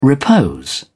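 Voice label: "repose", British English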